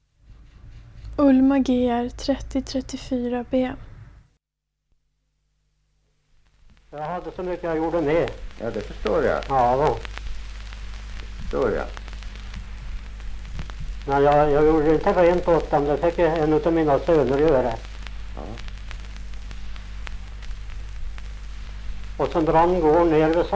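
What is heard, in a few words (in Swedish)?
Motala Östergötland, dialekter; Folkminnen; Svenska sagor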